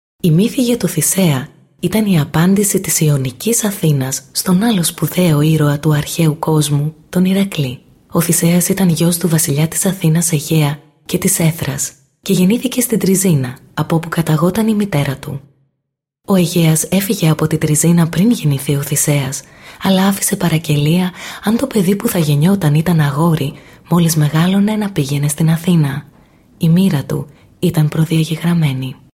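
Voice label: Greek, female, narration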